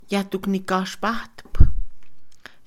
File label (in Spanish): condenar, Mixe, Mujer; INALI